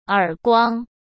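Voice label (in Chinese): "耳光", Mandarin Chinese; hsk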